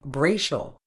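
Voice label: "brachial", British English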